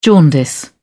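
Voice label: "jaundice", British English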